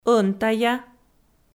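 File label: "ӧнтая", Komi, female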